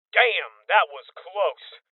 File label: Voices Armor Shot Male Young; Rebel Cops